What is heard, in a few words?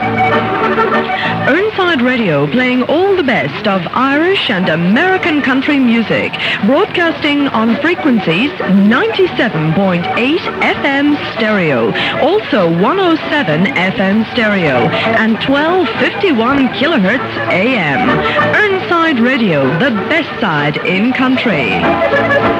station promo; erneside 1251am